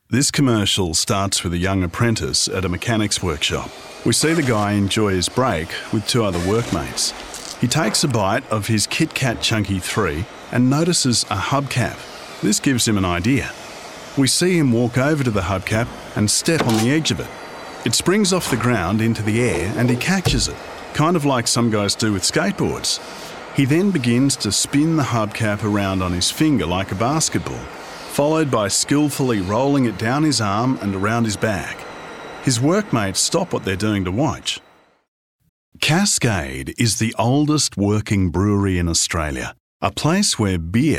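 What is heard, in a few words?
english australian male narration; voice demos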